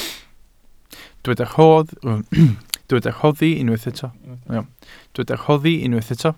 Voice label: "rhoddi", Welsh